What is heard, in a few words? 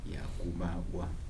"to be built", Kibembe